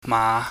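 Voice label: "ma", Cantonese, low rising tone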